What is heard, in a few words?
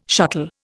"shuttle", Indian